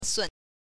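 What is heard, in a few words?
"sun", Mandarin Chinese, neutral tone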